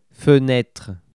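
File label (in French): "fenetre", French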